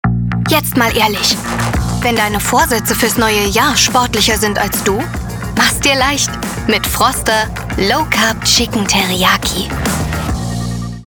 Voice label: Werbung Convenience Food

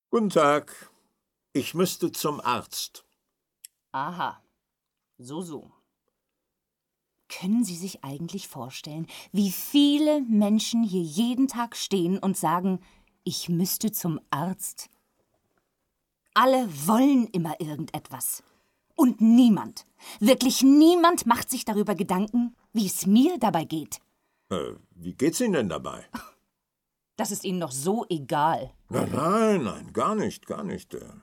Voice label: Hörspiel

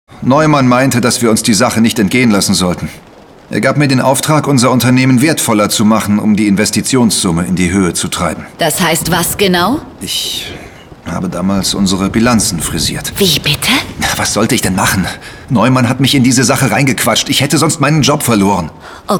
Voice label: hoerspiel; Endstation Steilkueste

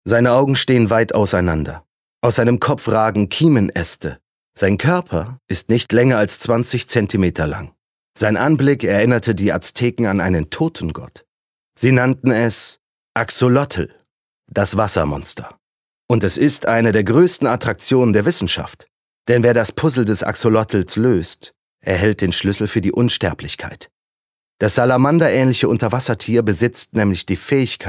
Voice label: Dokumentarischer Text; LOFT VOICES

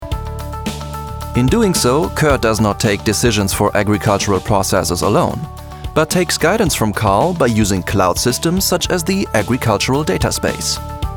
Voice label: Englisch Image; Fraunhofer; LOFT VOICES

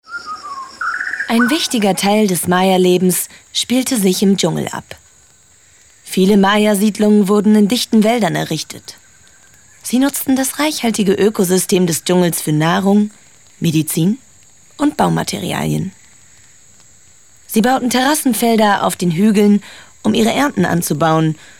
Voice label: Doku, Dokumentation / Kommentar, German; Doku, Audiobook (Hörbuch), Comment (Kommentar); voice

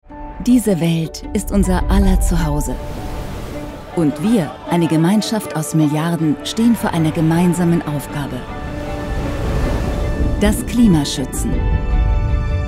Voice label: Imagefilm, Comment (Kommentar), Narrative, Presentation, German; Stiftung Allianz

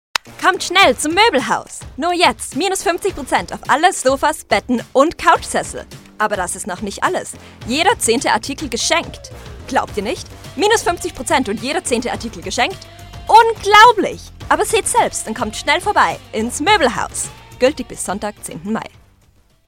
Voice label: Deutsch Werbung Moebelhaus

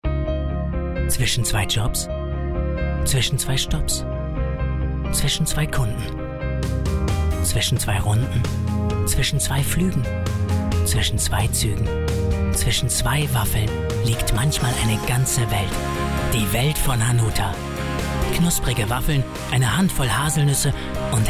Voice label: sanft; Hanuta

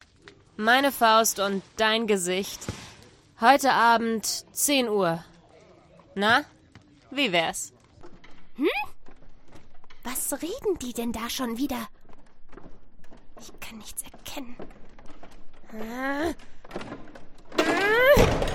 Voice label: Synchron Trickfilmstimmen

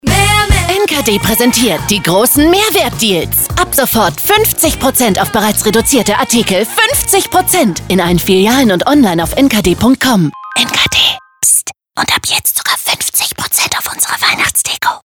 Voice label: Funkspot; NKD

